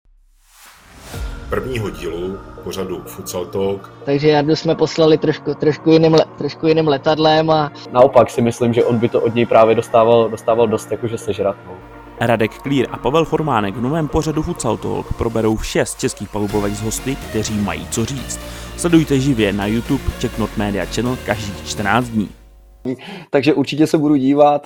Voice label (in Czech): reklama; FutsalTalk